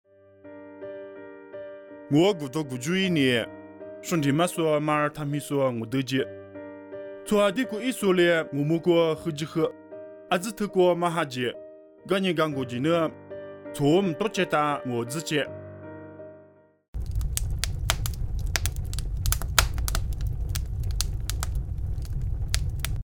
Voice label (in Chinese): 凉山彝语; 地中海马耳他; nuosu